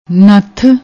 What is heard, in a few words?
"nathh", Punjabi